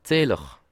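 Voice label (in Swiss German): "zahler", Bas Rhin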